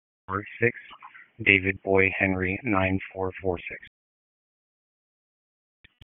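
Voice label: Dispatch, p25; WSO DISP 1; wake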